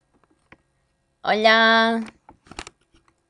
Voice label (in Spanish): niña diciendo hola